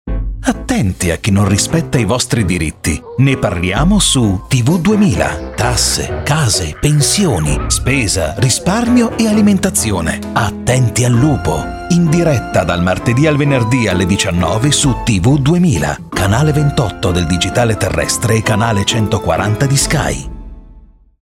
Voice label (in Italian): promo